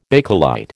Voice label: "Bakelite", American

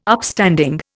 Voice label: "Upstanding", Indian

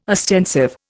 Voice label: "ostensive", Indian

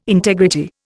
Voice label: "integrity", Indian

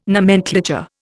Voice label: "nomenclature", Indian

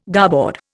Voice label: "garboard", Indian

